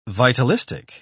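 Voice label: "vitalistic", English, British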